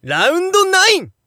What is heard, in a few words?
Narration ROUND